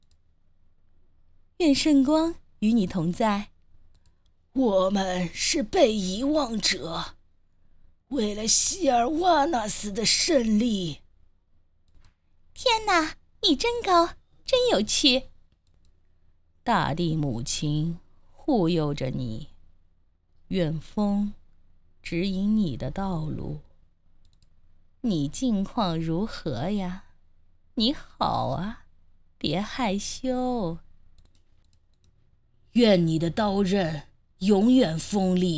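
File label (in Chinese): 模仿多种族女性配音; 魔兽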